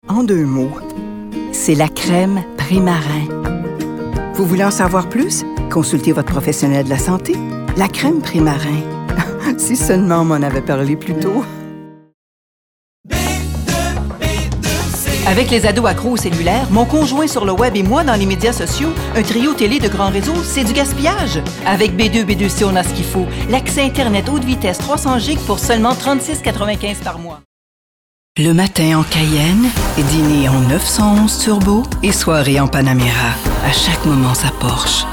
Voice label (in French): Annonceur